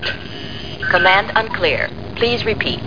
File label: com talk